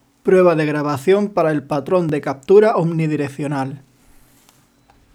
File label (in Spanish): MSI Immerse GV60 Omnidireccional